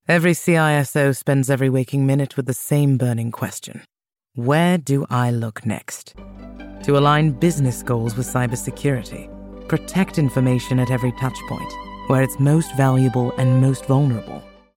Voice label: corporate classy luxury mature upscale training business presentation